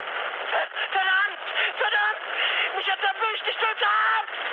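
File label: German aircraft destroyed mood high, speech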